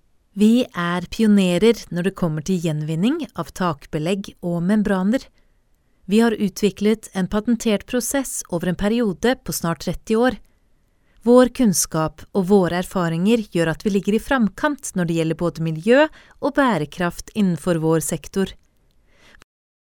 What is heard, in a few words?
Norwegian voice over, Norwegian female voice over; locutor